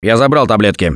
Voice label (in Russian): Take Pills, left4dead2 russian, Survivor Voice; Gambler